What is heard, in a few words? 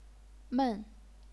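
"men", fourth tone, chinese pinyin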